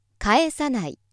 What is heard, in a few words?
female